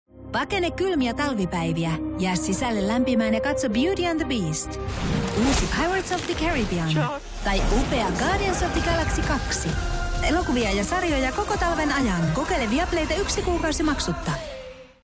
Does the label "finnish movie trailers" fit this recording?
Yes